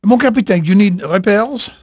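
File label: French male, voice; Pirates Of The Caribbean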